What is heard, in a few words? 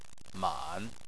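"man", Chinese, third tone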